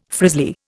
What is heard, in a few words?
"frizzly", Indian